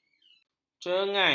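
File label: "cengal", Malay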